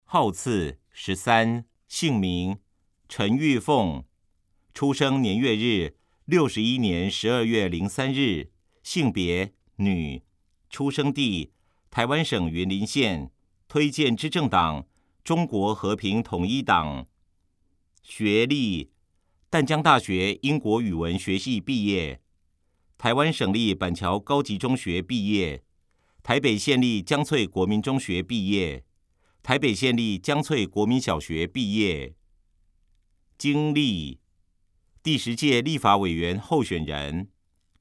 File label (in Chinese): 國語; 新北市; 有聲公報; 市議員; 第5選區